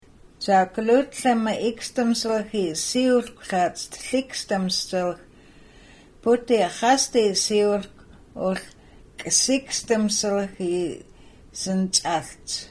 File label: Nsyilxcen; Penticton Skaha